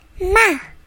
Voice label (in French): ma (amplifié et accéléré)